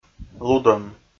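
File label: "лудӧм", Komi, male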